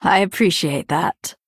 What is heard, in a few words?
Female Nightborne Thanks